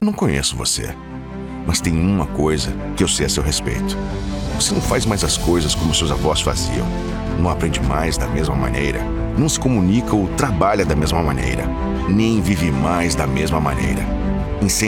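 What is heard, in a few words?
BR-PT Corporate, Brazilian Portuguese corporate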